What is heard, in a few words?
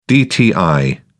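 "dti", British English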